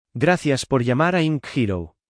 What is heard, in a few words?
welcome, phone; spain